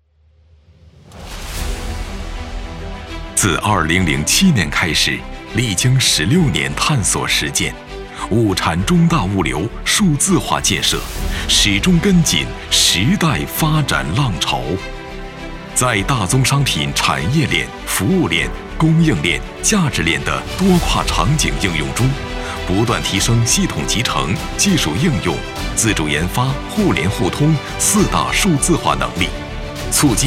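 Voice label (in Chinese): 物流宣传片浑厚坚定自信沉稳未来, 男配音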